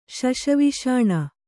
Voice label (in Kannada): "ಶಶವಿಷಾಣ", kannada